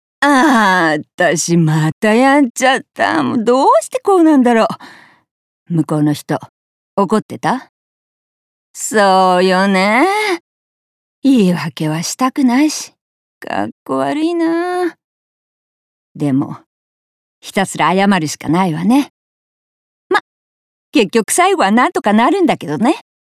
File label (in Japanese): そそっかしい60代